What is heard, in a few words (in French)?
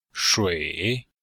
"shui3", chinois; Cours-de-Chinois